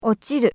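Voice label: "ochiru", Japanese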